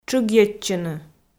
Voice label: "тшыгйӧдчыны", Komi

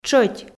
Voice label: "тшӧть", Komi

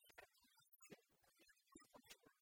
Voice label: шань кывъяссьыд кывйыд оз косьмы, kv_proverbs

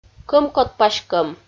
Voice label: "кӧмкот-паськӧм" Komi

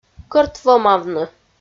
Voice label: "кӧртвомавны" Komi

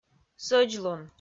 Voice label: "сӧдзлун", Komi

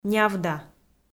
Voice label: "нявда", Komi

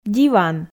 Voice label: "диван", Komi

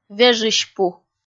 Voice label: "вежысьпу" kpv f

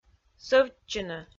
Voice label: "сӧвтчыны", Komi

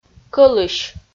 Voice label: "кӧлысь" Komi

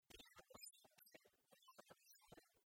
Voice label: биын оз сотчы ваын оз вӧй, kv_proverbs

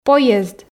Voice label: "поезд", Komi

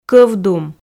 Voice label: "кӧвдум" Komi

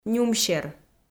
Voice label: "нюмсер", Komi

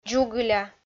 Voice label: "дзугыля", Komi

